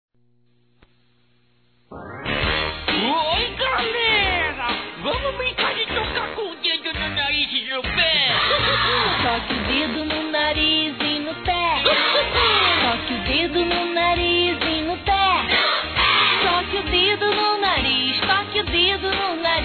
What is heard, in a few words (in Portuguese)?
Aniversário Sobrinho Feminino; Aniversário Infantil; Telemensagens